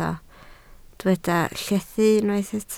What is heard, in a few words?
"llethu", Welsh